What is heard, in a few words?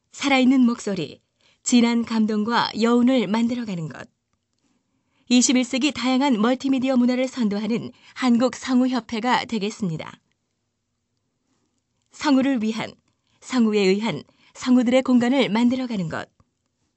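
Korean, female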